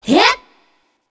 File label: slash voice; chiabihamaru; cv project; invading executioner; zerosiki; slender